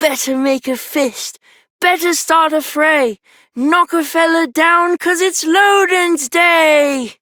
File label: Child Rhymes Anger Child M, Childrens Rhymes; Duviri